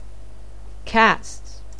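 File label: "cast", English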